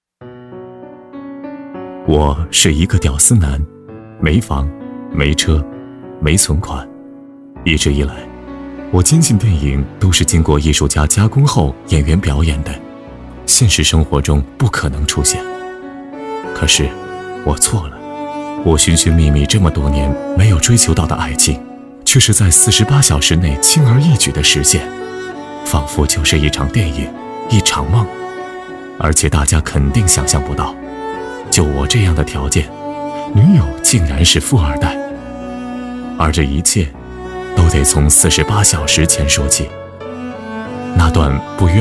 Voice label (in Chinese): 男国 其他 微电影 独白